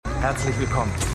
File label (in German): Mann; For All Mankind